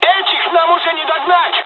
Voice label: dialog, dialogs russian; War Thunder